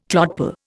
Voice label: "clodpoll", Indian

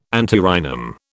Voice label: "antirrhinum", British

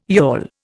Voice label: "yawl", Indian

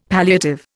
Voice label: "palliative", Indian; Dictionary

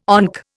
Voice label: "ONC", Indian

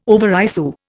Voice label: "overissue", Indian

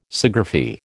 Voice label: "Sciagraphy", American

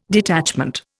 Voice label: "detachment", Indian

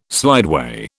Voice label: "slideway", British

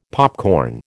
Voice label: "popcorn", American

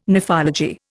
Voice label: "nephology", Indian

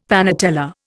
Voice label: "panatella", Indian; Dictionary

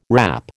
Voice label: "wrap", American

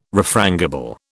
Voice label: "refrangible", British

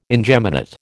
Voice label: "ingeminate", American

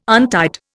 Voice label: "untied", Indian

